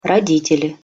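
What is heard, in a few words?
"roditeli", Russian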